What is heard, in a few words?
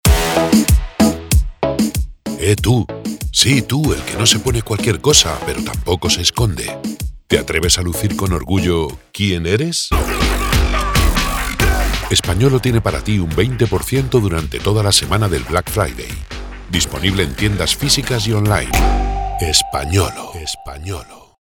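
spanish announcements, Spain; voice actor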